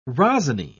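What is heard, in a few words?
"rosiny", British English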